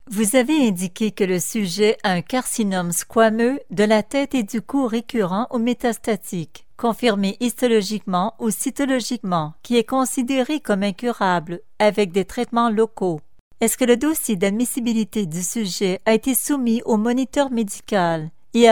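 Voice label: French Canadian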